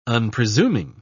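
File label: "unpresuming", British English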